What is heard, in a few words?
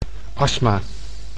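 "astma", Dutch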